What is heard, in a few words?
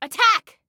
Attack, Female